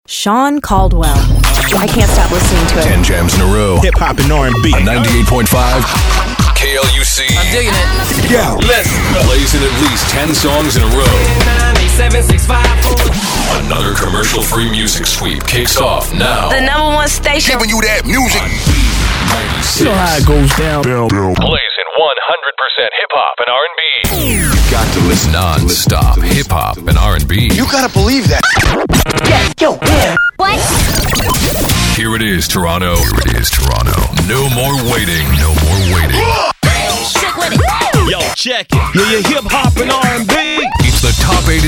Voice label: imaging rhythmic